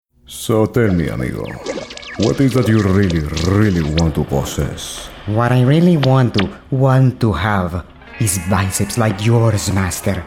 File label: demo spanglish character